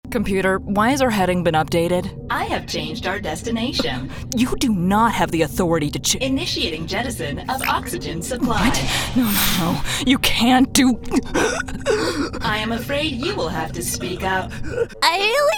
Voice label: Character

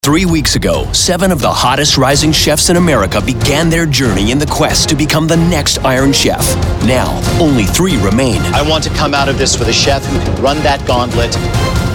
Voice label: Promo

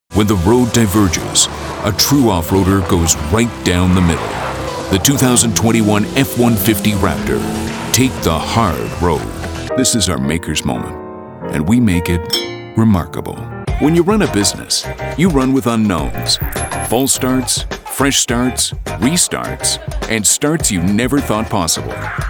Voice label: Commercial; TaDa

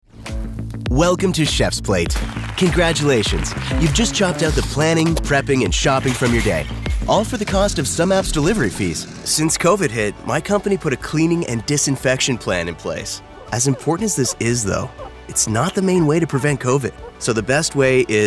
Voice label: Narration; TaDa

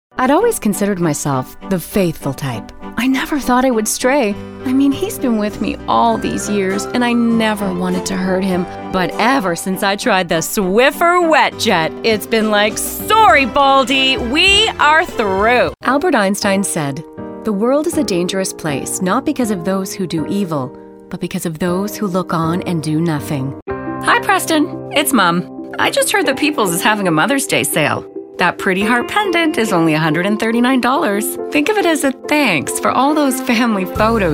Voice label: commercial; TaDa

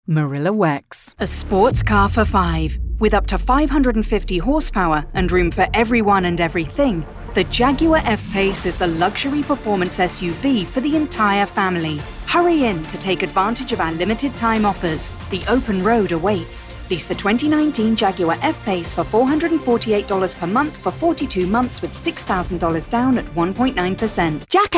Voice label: British Commercial; TaDa

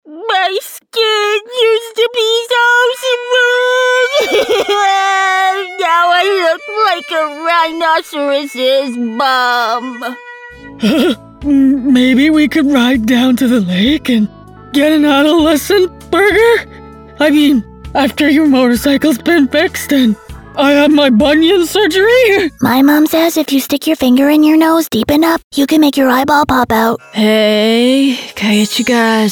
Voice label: Character; TaDa

